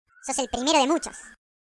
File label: Firstblood, voices es; hedgewars; Default es